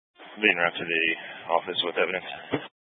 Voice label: Sheriff Dispatch; Mesa County